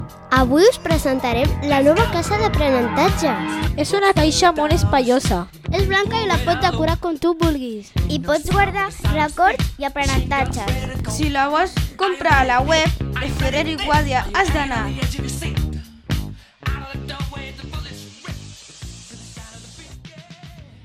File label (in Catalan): Anunci Radio; Radio Onades